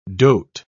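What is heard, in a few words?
"doat", British English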